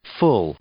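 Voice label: "full", English; adjective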